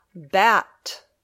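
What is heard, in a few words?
"bat", English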